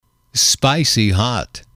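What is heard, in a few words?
"spicy hot", English, male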